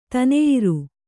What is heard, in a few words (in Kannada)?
"ತನೆಯಿರು", Kannada